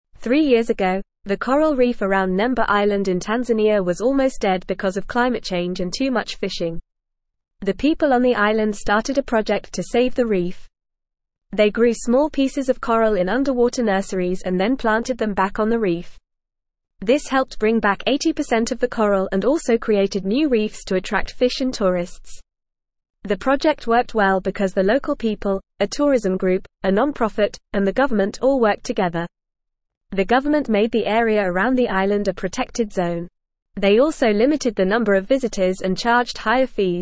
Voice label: English Newsroom Lower Intermediate FAST Reading; Island People Save Coral Reef with Underwater Garde

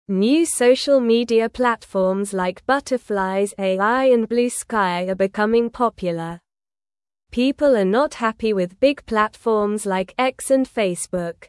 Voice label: English Newsroom Lower Intermediate SLOW Reading; New Apps Are Here to Change Social Media

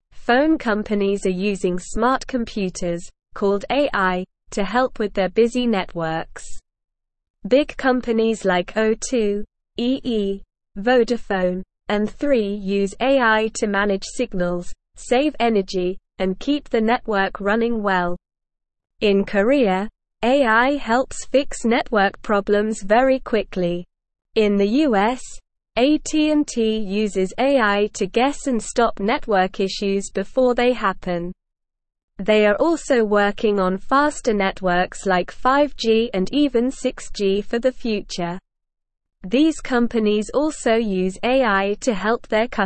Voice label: English SLOW Reading; Phone companies use smart computers to help networks

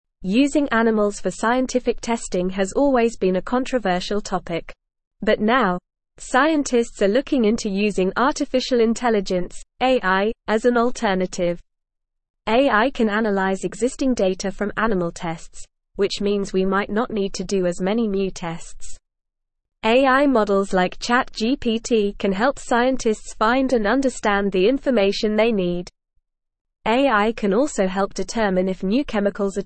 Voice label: English NORMAL Reading; AI Accelerates Search for Non Animal Testing Alte

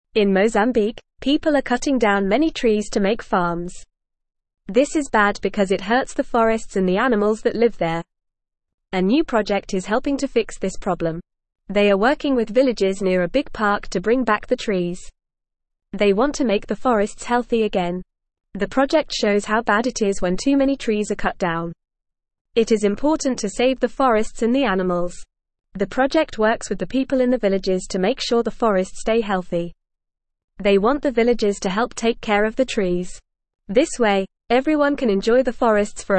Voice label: English FAST Reading; Helping Mozambiques Forests Bringing Back the Trees

